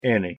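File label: "any", English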